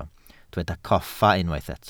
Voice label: "coffa", Welsh